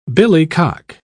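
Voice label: "billycock", English, uk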